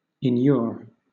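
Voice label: "inure", British English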